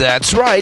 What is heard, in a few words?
that's right announcer